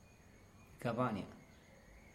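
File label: "gabania", Teotitlán del Valle Zapotec; despierto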